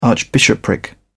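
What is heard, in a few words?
"archbishopric", British English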